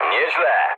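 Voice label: trick mood low, speech Polish; War Thunder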